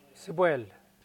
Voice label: "sipwel", Mokilese